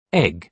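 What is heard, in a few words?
"egg", British English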